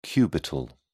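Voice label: "cubital", British English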